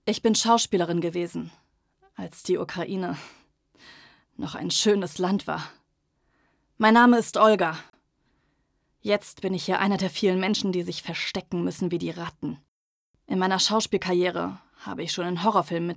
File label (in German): Hoerspiel Angst, sprecherin